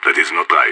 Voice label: negative, radio; SAS

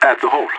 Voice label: hall, radio; LEET

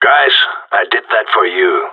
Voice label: last man standing, radio; GSG9

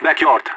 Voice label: backyard, radio; LEET

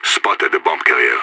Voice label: bomber spotted, radio; IDF

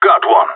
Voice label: enemy down, radio; GSG9

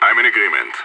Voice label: affirmative, radio; SAS

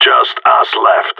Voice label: no enemies left, radio; GSG9

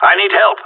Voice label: need help, radio; GSG9

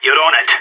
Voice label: good shooting, radio; SAS

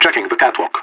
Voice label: checking catwalk, radio; LEET

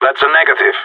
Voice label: negative, radio; GSG9

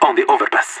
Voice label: overpass, radio; LEET

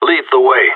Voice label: following, radio; GSG9

